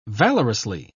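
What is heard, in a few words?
"valorously", British English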